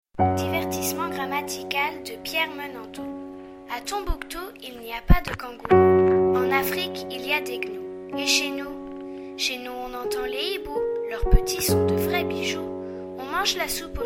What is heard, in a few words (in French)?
Poesie musique